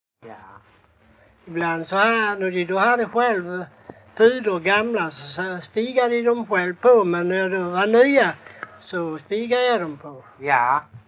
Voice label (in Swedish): Bosjökloster SK, dialekter; Folkminnen